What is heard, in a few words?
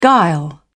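"guile", British English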